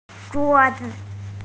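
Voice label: "zhuo1zi5", Mandarin Chinese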